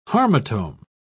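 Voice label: "harmotome", British English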